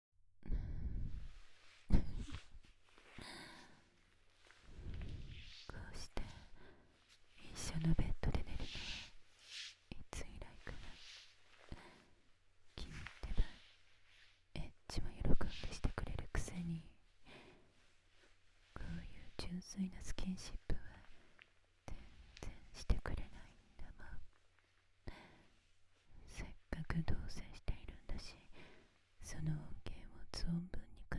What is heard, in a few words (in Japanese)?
L 耳舐め寵愛ピロートーク